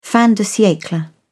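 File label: "fin de siecle", British English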